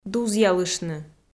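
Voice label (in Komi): "дузъялыштны", Komi, female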